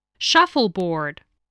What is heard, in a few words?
"shuffle-board", spelling